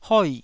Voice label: "hoi4", taiwanese syllable